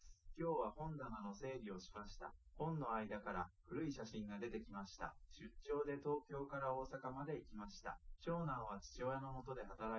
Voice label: test male live rec, underdetermined; SiSEC10

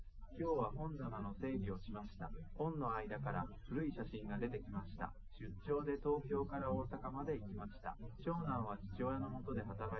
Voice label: male live rec; SiSEC08; SiSEC underdetermined

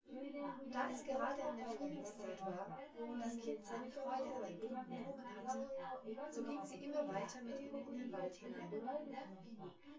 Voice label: female synthconv

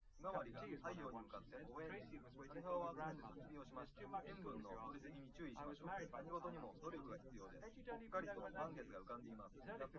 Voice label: male, live rec, 250ms, 5cm; SiSEC08; SiSEC underdetermined

